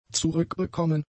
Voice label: "zurückbekommen", German; adjectives